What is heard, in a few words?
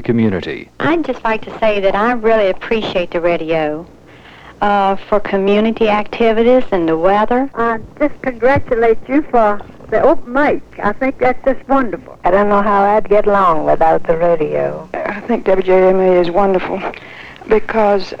station promo voices; WJMA